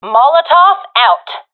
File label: throwing molotov, professional fem; vo; Counter Strike Model Pack; CS:GO